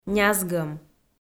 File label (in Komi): "нязгӧм", Komi